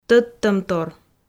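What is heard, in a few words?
"тӧдтӧмтор", Komi, female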